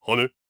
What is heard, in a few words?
surprised